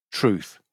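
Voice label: "truth", British English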